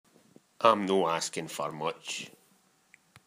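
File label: "Askin", slang; Ah huvnae seen ye aboot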